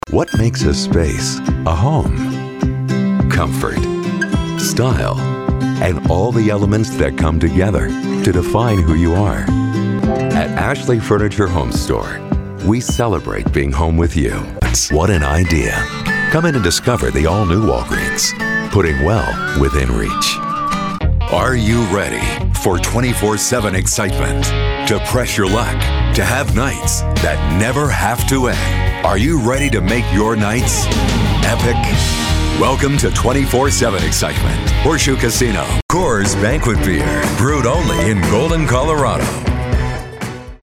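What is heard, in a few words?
Commercial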